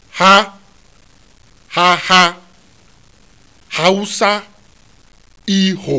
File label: "h hhh", Yoruba; CONSONANTS; haha-hausa-iho